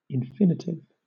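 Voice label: "infinitive", British English; pronunciations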